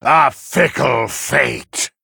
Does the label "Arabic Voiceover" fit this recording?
No